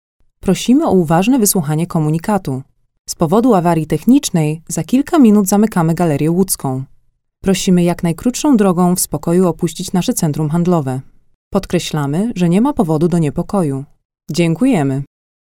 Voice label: IVR